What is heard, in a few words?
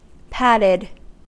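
"patted", US English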